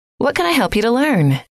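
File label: "what can I help you to learn", female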